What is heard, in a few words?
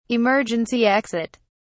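"emergency exit", British English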